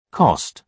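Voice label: "cost", British English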